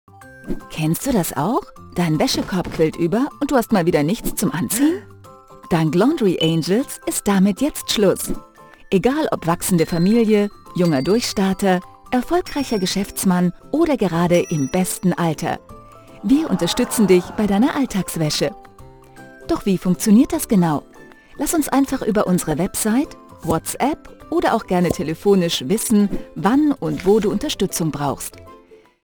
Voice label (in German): Erklarfilm; LaundryAngels